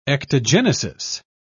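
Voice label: "ectogenesis", British English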